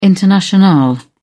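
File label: "internationale", British English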